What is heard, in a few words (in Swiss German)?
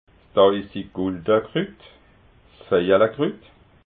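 "tauisigguldakrut", Alsatian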